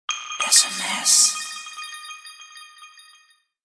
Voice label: sms sexy voice

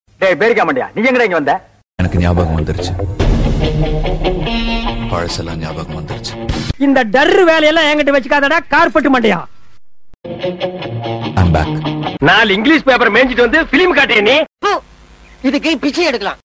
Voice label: Funny; Ajith

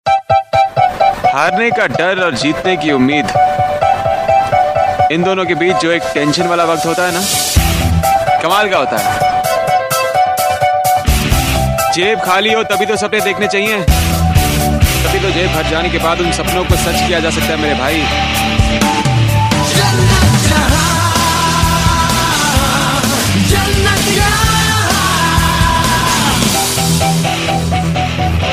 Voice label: Dialog

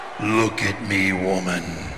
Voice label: LOOK AT ME WOMAN

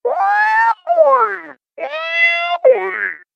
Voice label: Yeah Boi; Gw2 Sab

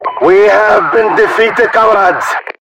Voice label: Defeated Voice

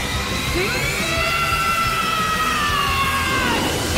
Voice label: SMASH English; Deku

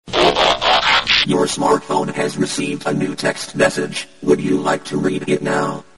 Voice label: Robot Voice

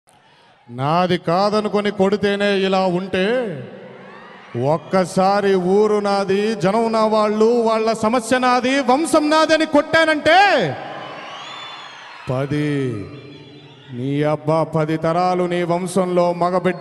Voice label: Dialog; Dhammu Power

